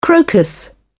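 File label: "crocus", British English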